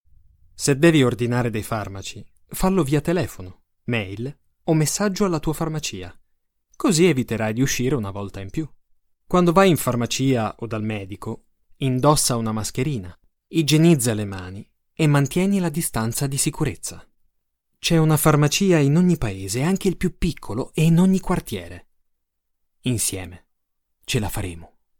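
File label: Italian, General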